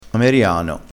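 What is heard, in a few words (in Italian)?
"ameriano", vocabolario dialettale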